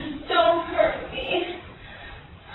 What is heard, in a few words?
dont hurt me, Plead